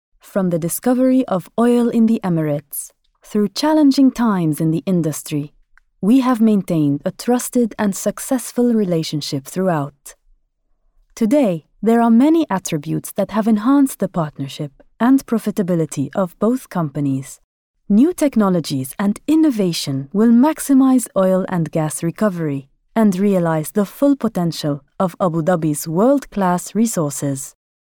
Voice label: English Arabic Accent